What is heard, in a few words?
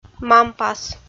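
"мампас" Komi, female; kpv audio dict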